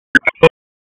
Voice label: Police Northeast District Tac; wake